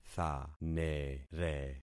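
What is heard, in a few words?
"thanere", concatenated syllables; Affixing experiment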